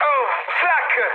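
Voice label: Italian damaged aa mood med, speech; War Thunder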